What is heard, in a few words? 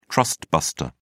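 "trustbuster", British English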